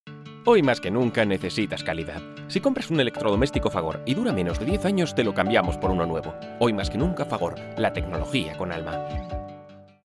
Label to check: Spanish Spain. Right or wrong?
Right